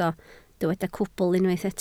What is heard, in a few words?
"cwbl", Welsh